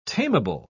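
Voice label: "tameable", British English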